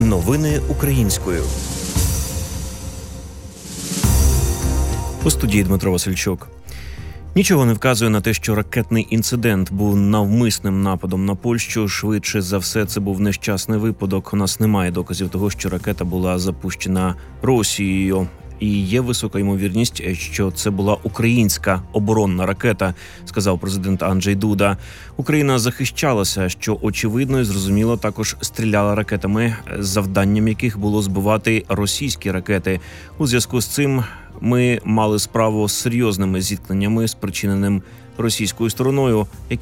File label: Ukrainian Morning News